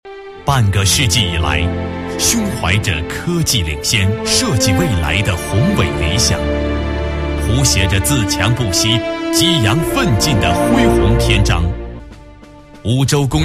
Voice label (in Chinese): 男40 专题, 国语男声配音